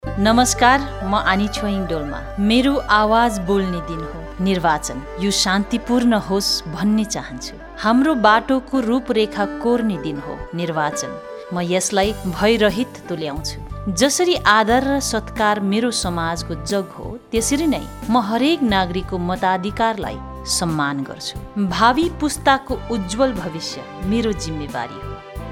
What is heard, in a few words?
PSA RADIO